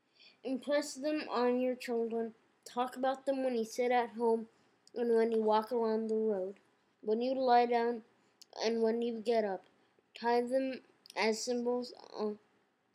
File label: Voice over; Shema Worship